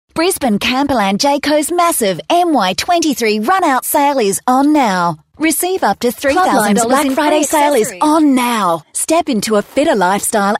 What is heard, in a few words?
Hard Sell